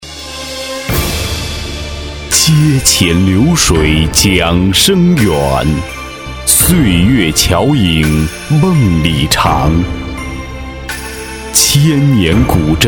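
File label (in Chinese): 【广告】男-大气厚重; 老酒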